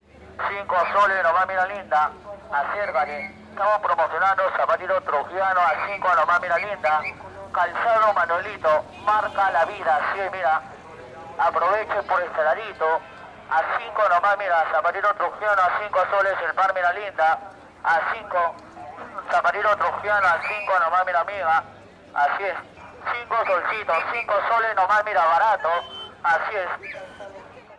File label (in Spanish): VENDEDOR DE ZAPATOS CON MEGÁFONO SATURADO EN AMBIENTE DE MERCADO EXTERIOR; TRUJILLO